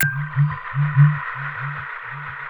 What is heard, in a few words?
BABBLIN; RAINMAN